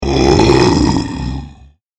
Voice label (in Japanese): ゾンビボイス